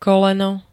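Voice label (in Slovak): "koleno", Slovak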